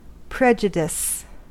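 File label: "prejudice", American English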